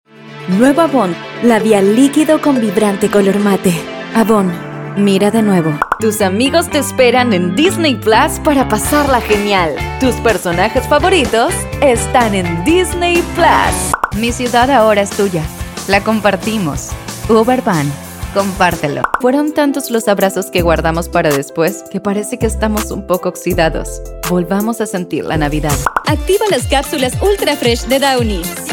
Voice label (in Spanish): neutro, espanol neutro